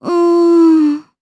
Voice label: Vox Think, jp; Kirze; kings raid